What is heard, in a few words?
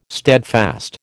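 "steadfast", American English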